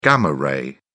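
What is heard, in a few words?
"gamma ray", English, British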